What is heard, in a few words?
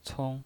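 "cong", Mandarin Chinese pinyin voice, neutral tone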